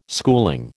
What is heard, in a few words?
"schooling", American